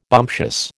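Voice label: "bumptious", American English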